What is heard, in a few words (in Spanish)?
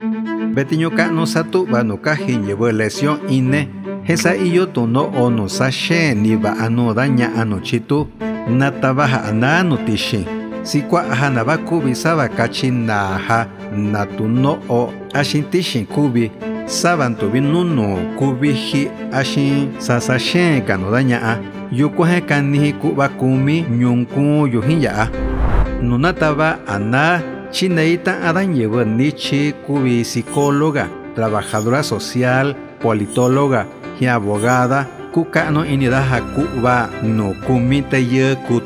MIXTECO